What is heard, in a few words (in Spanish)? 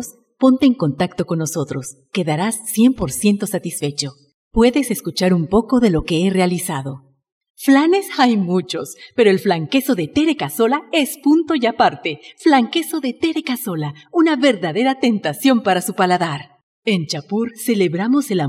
Locutora